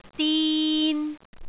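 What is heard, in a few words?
"din3", Cantonese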